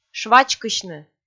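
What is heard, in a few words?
"швачкысьны", Komi, female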